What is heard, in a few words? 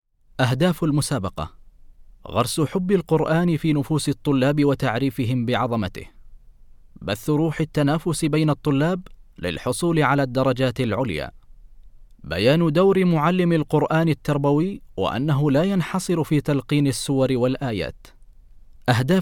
Arabic